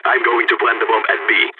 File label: going to plant bomb b, balkan; Counter-Strike Global Offensive